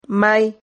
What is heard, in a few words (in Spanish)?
"mai", Silabas